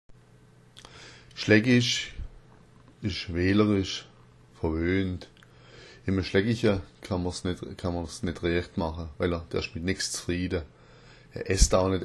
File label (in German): schlaeggich, schwaebisch